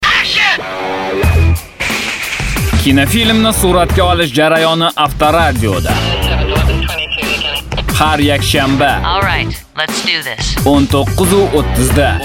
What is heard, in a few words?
RU General; GoLocalise